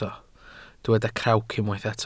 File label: "crawc", Welsh